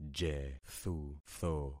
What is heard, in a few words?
"jethutho", concat syllables; Affixing experiment